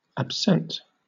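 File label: "absent", British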